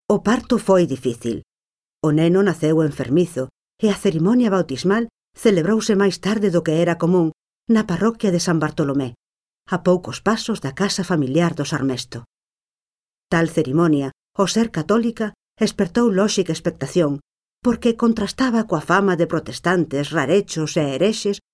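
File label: Galician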